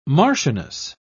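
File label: "marchioness", English, British English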